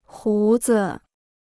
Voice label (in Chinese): "胡子", Chinese